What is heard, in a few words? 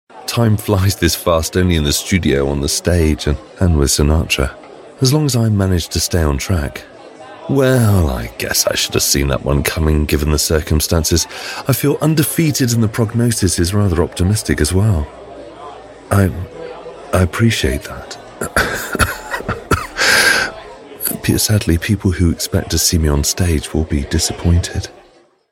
character